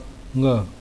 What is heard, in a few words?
"NGa", Hindi